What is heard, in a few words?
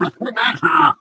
impatient